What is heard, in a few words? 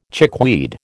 "chickweed", American